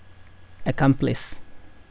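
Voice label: "accomplish", Nepalese, male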